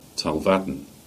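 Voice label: "Tolvaddon" Cornish; Talvan c1200 (Gover, 1948)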